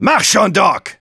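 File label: Engineer activate charge, German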